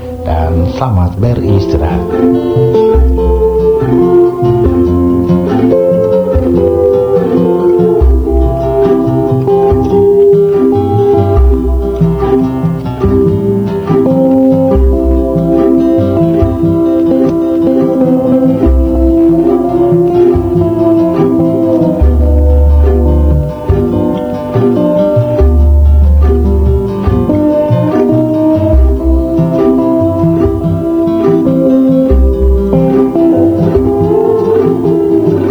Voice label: 3345kHz RRI Ternate LIve Stream